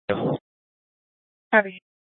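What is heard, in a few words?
Incident Tac 3, Scanner audio recording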